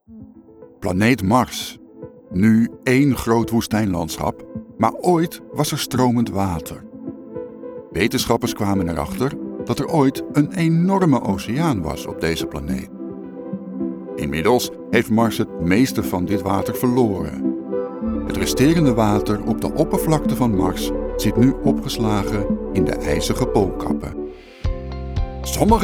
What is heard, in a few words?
Narrative Corporate, voice-over; Stemacteren en voice-over; Dat het vak van stemacteur en voice-over iets anders is dan het goed kunnen nadoen van stemmen en stemmetjes